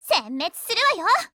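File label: ATTACK, Japanese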